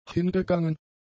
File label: "hingegangen", German; adjectives